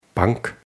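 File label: "geldbank", German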